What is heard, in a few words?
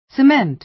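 "cement", American English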